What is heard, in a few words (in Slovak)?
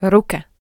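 "ruka", Slovak; Logopedicka Platforma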